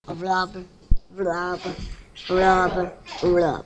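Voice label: "rub", spoken word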